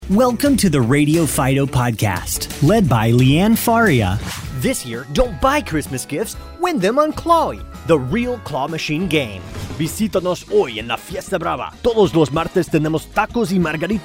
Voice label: VO reel